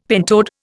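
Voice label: "pentode", Indian English; Dictionary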